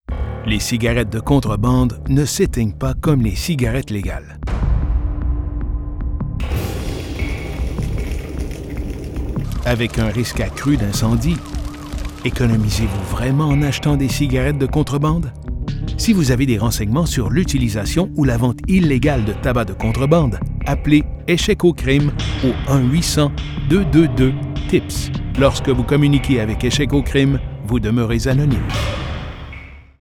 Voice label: Fire PSA FR